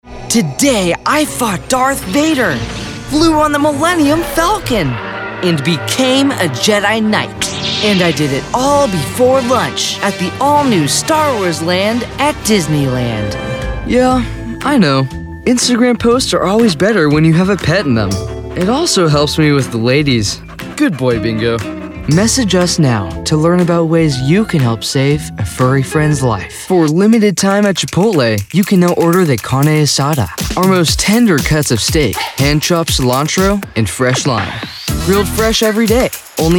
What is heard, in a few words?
Commercial